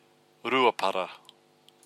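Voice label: "ruapara", Māori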